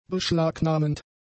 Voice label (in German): "beschlagnahmend", German; adjectives